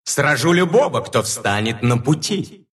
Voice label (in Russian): levelup ru; Monkey; dota2